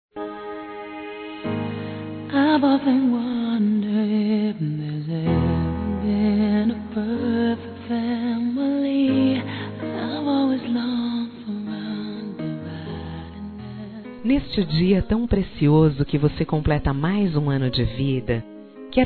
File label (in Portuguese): NEUTRO (F)